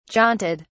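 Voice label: "jaunted", British English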